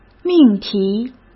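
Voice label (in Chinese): "命题", Mandarin Chinese; hsk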